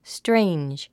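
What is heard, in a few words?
"strange", English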